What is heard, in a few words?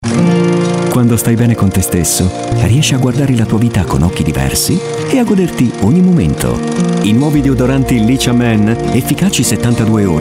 italian voice over male commercial